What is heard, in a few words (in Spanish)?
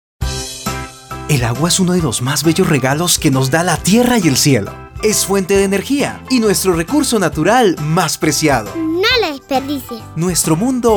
Comercial; Carnavales Ocaña